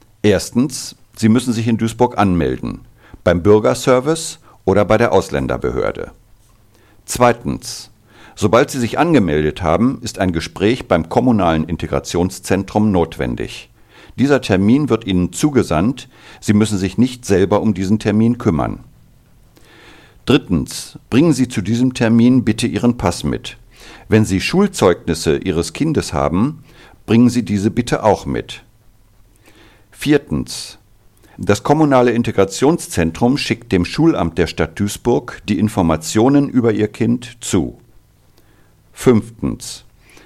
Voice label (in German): Sprachausgabe - Deutsch